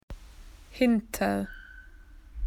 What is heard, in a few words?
behind, spoken AU; PictureDictionary